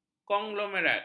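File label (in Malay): "konglomerat", Malay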